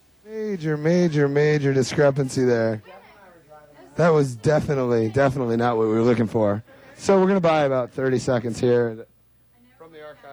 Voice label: radio banter

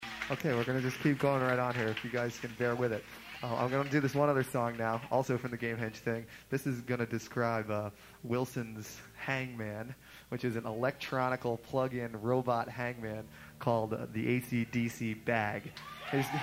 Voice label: Narration; Olympia, WA; North Shore Surf Club

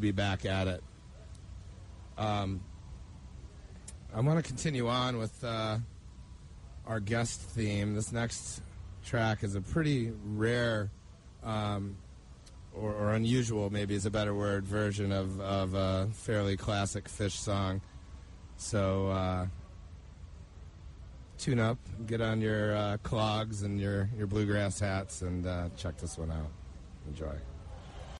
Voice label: radio banter; Bonnaroo

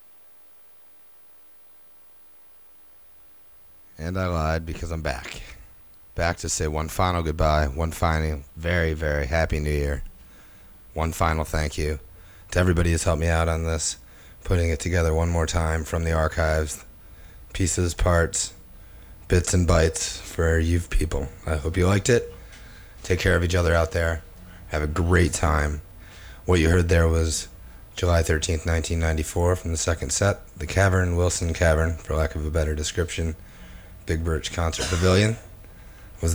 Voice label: radio banter; Big Cypress

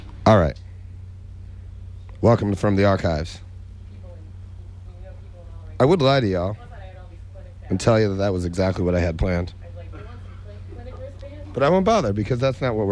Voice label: radio banter; Coventry

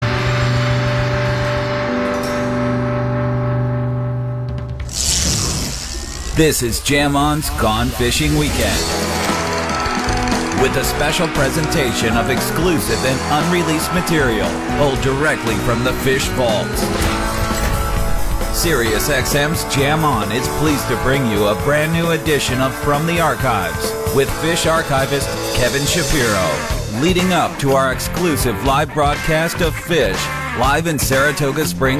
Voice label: radio banter; SPAC

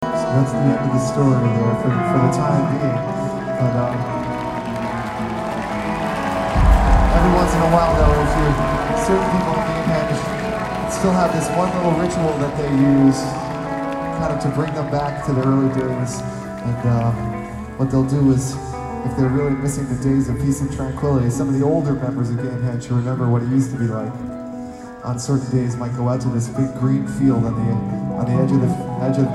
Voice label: narration

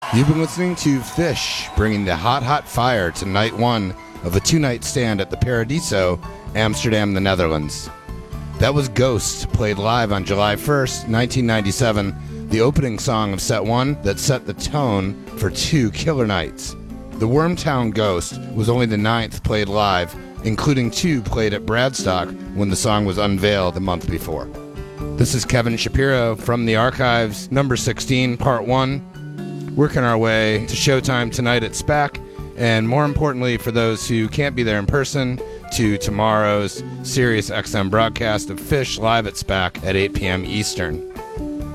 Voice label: radio banter; SPAC

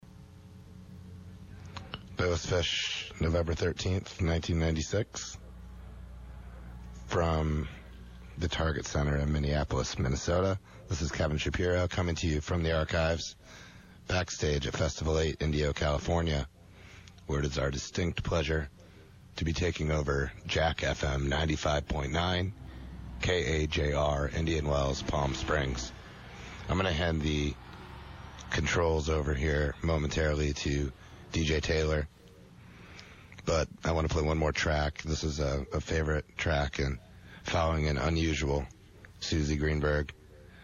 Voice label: radio banter; Festival 8

